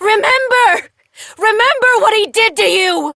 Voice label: Operator Voice, en; Warframe; New War